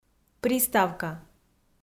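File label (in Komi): "приставка" Komi, female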